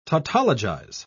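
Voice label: "tautologize", British English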